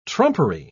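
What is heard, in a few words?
"trumpery", British English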